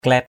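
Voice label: "klait", Cham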